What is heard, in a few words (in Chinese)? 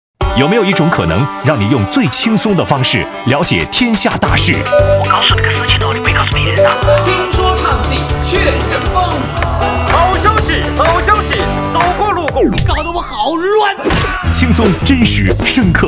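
电台新闻片头; 金鹰955电台955飚新闻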